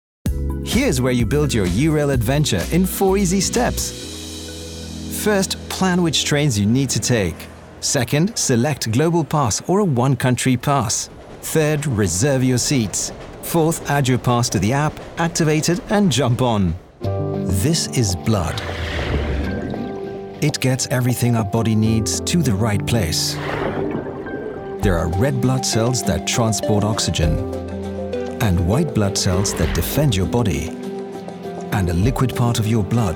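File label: uk corporate narration, British, male; engelsk-uk; mann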